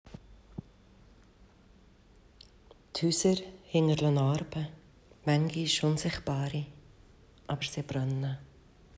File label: Berndeutsch